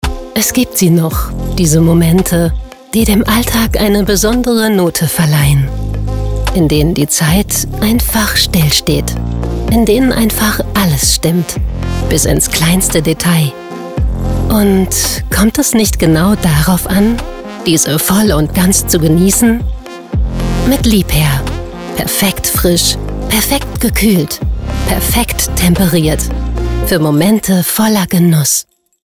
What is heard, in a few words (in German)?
Werbung Lifestyle